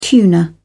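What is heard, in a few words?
"tuner", British English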